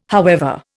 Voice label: "however", Indian